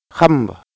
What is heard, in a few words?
"xamb", Wolof